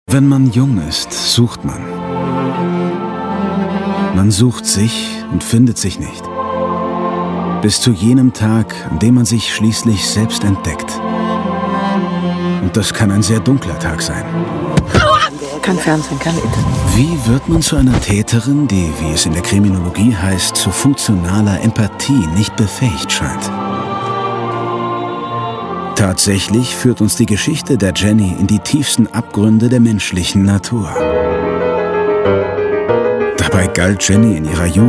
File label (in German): Kinofilm Kommentar, German; 15 Jahre